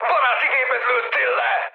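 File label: Hungarian player killed ally mood high, speech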